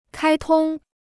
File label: "开通", Chinese